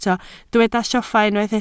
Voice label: "lloffa", Welsh

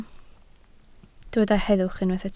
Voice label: "heddwch", Welsh

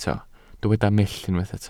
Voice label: "mull", Welsh

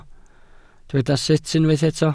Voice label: "sut", Welsh

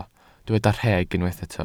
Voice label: "rheg", Welsh

